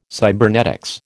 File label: "cybernetics", American